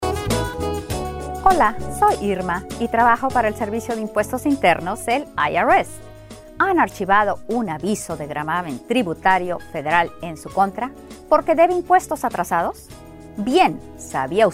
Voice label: Spanish; Lien Withdrawal